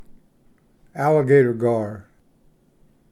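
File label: "alligator gar", English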